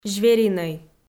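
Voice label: "зверинӧй", Komi, female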